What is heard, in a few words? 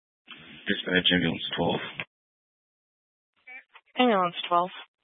Fire Department Dispatch, scanner; Grand Junction